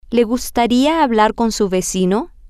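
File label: would you like to speak with your neighbor, spanish, female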